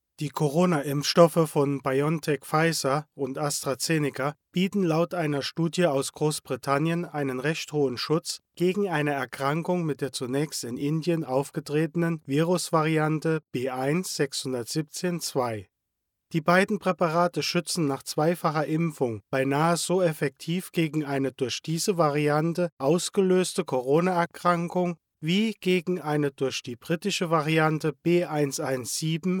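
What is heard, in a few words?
German male voice over, narrative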